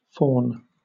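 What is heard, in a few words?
"fawn", British English; pronunciations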